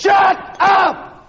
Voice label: shut up male; expletives

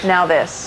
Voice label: "now this", male

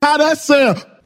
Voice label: "how that sound", male

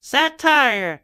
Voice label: cartoon; Voice drops

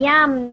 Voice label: kid; yum

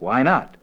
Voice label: "why not", male; questions

